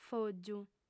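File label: "fògiu", Sardinian, female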